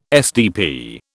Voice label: "SDP", British